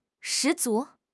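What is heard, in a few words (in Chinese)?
"十足", Chinese; HSK6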